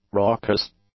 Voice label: "raucous", English; adjectives

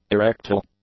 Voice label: "erectile", English; adjectives

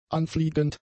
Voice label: "anfliegend", German; adjectives

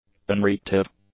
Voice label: "unreactive", english; adjectives

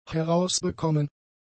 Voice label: "herausbekommen", German; adjectives

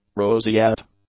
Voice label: "roseate", English; adjectives

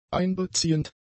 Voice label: "einbeziehend", German; adjectives

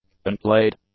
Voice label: "unplayed", english; adjectives

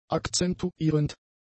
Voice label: "akzentuierend", german; adjectives

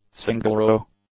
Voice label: "single-row", English; adjectives

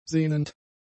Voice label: "sehnend", German; adjectives

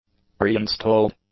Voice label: "pre-installed", english; adjectives

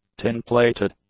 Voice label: "tin-plated", English; adjectives

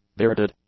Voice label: "bearded", English; adjectives

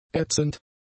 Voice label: "ätzend", German; adjectives

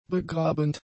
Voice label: "begrabend", German; adjectives

